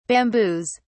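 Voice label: "bamboos", British English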